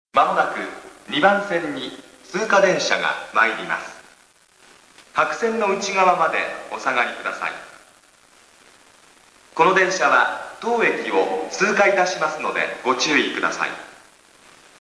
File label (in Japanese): 快速の通過放送; 2番線通過 -- 東葉快速の通過放送です。; yachiyo-chuo